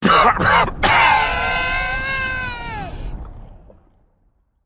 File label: Male Elemental Death